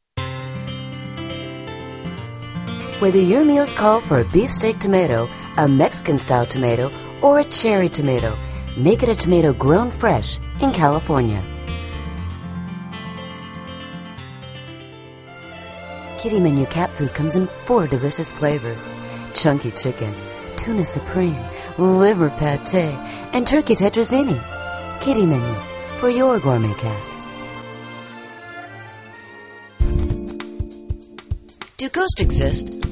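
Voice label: USA, female; narrator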